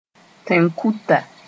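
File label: "tẽn-kuta", Mooré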